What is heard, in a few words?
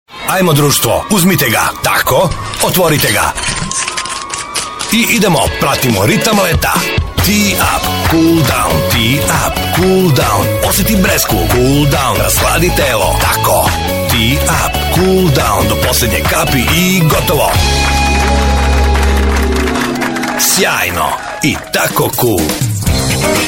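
serbian male commercial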